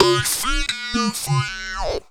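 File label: effects talk, Funky Vocals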